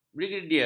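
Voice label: "brigedier", Malay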